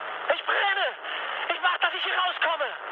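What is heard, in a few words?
German on fire mood med, speech; War Thunder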